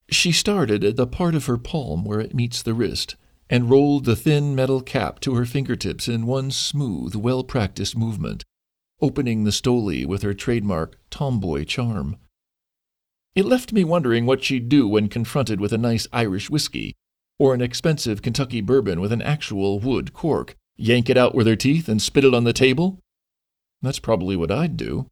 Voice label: audio books, American; Voice-over demos; USA